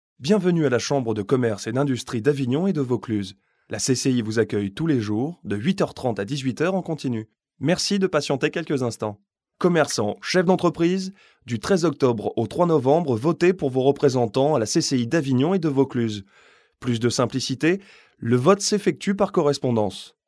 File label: male french voice over talent narrative; france